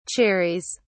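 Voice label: "cherries", British English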